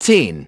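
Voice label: "ten", English; iOS 4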